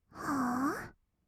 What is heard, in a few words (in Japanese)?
はー？, kawaii voice; ASMR; 1200 kawaii voice!!; 音ゲー